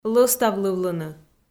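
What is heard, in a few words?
"лӧставлывлыны", Komi, female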